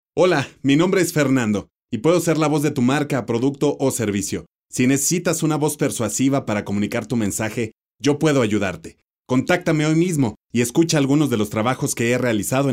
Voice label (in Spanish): Locutor